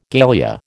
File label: "galea", American